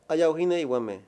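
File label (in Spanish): "escudi" Mayo, male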